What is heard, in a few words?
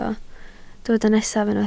"nesaf", Welsh